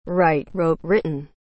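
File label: "write", English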